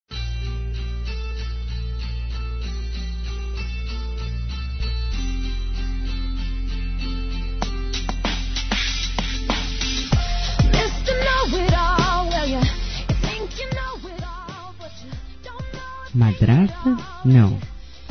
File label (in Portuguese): Dia das Mães Madastra Feminino; Telemensagens 2017